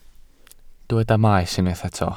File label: "maes", Welsh